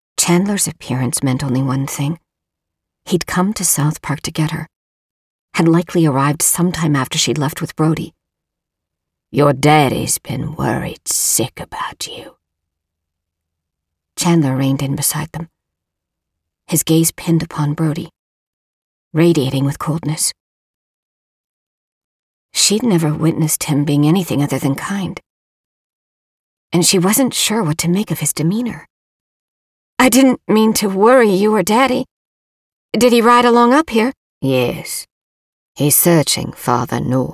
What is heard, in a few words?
historical romance southern male 3rd person mf dialogue; audiobook narration